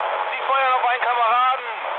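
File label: German player friendly fire mood low, speech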